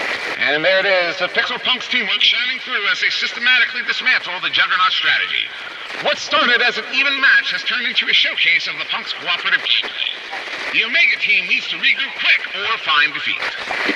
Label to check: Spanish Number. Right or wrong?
Wrong